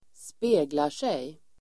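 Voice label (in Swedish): "speglar sig", Swedish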